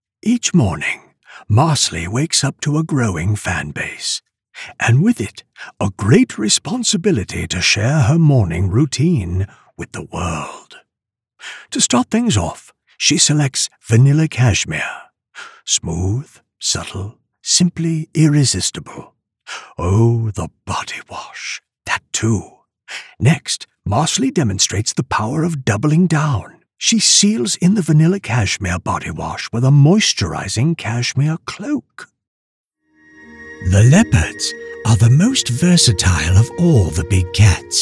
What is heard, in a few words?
English American impersonations